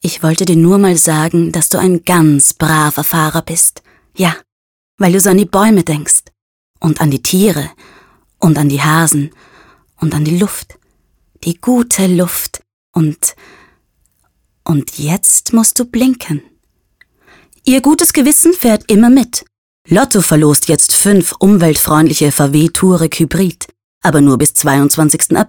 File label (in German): Werbung